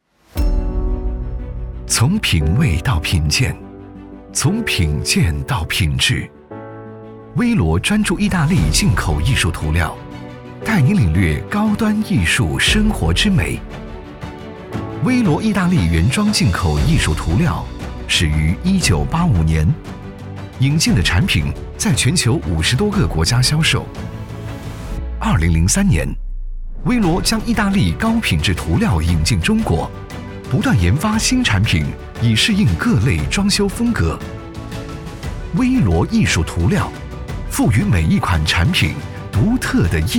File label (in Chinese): 男 广告 年轻 品质; 威罗